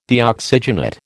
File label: "Deoxygenate", American